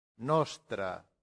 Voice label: "nostra", Latin; santillana